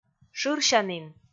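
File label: "шырсянін", Komi, female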